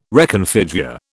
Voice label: "reconfigure", British